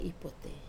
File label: "pote", catch (fish), wamesa